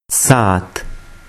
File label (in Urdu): "saath", Urdu